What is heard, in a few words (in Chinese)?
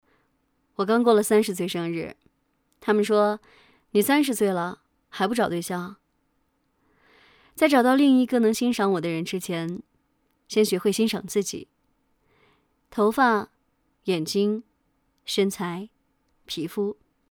角色 30岁